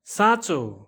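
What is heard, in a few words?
"key", English; grade1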